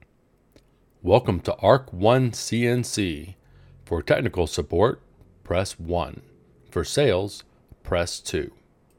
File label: outgoing message